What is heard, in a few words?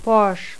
retroflex sibilant fricative, toda